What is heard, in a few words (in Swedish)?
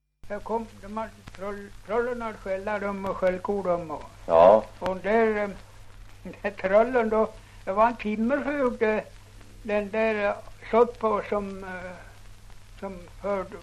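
Ovansjö Gästrikland, dialekter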